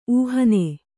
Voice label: "ಊಹಿನಿ", Kannada